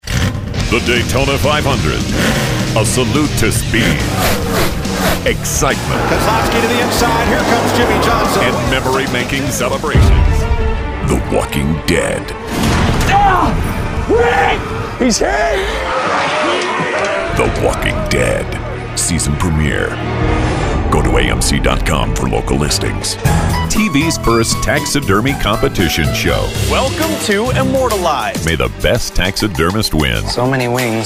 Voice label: VO TV Promos